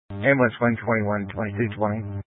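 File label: Incident Tac 1, Scanner audio recording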